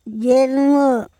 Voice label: "jelmo", Andamanese